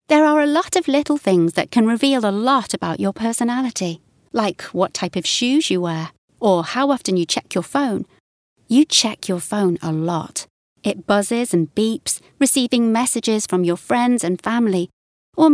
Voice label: explainer